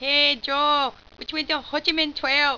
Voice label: female; ho chi min trail; valve; gook

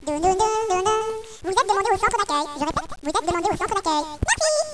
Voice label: children chatter; valve

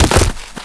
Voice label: fall pain; valve

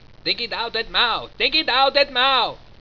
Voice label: babble; valve; gook

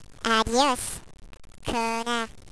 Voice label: children taunt; valve

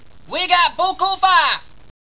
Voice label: babble; valve; gook

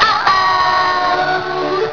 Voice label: uh oh; valve

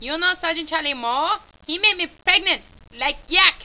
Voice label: female pregnant; valve; gook

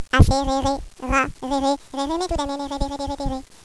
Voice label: children chatter; valve

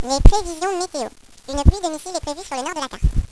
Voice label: children discuss; valve

